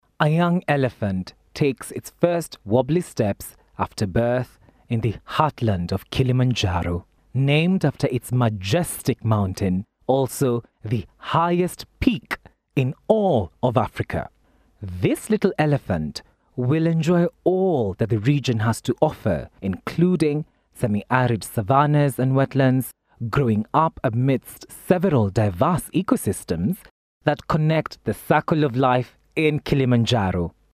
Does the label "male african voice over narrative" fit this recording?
Yes